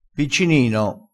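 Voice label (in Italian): "piccinino", dialettale, crespinese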